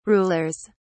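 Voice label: "rulers", English, British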